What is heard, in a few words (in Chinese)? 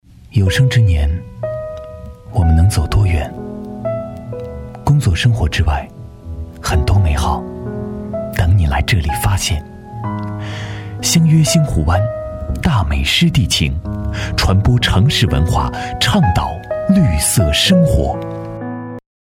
品质广告; 星湖湾